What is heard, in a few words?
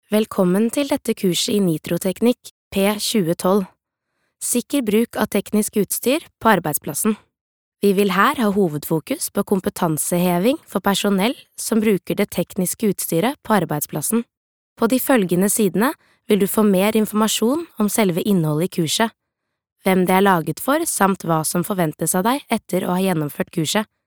no elearning; norsk; kvinne